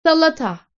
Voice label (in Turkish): "Salata", Turkish